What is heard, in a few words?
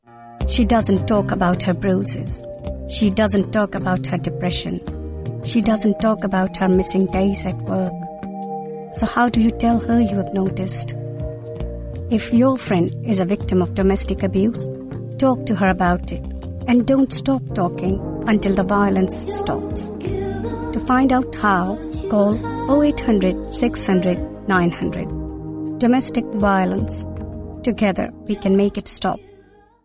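Urdu English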